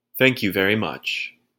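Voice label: thank you very much, English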